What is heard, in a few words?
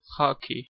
"xaki", Urdu; خاکی khaki